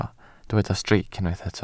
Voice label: "streic", welsh

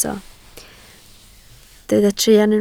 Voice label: "truan", Welsh

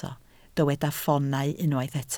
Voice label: "ffoonau", Welsh

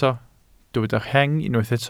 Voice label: "rheng", welsh

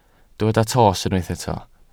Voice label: "tost", Welsh

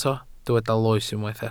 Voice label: "loes", Welsh

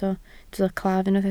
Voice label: "claf", Welsh

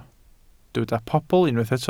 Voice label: "pobl", Welsh

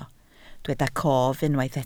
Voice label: "cof", Welsh

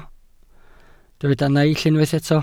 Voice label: "naill", welsh

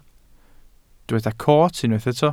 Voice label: "coot", Welsh

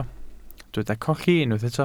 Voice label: "colli", Welsh

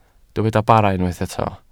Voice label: "bara", Welsh

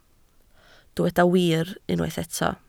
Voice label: "wir", Welsh

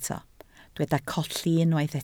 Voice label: "colli", Welsh

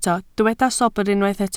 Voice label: "sobr", Welsh

